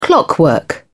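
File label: "clockwork", British English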